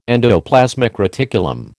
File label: "endoplasmic reticulum", American